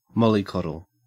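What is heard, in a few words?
"mollycoddle", Australian English; pronunciations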